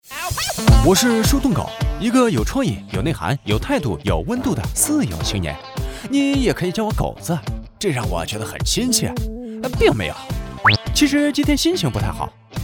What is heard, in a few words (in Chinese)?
轻松幽默; 飞碟说